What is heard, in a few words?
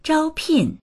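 "zhao1pin4", Mandarin Chinese; 招聘; 招聘、求人（する）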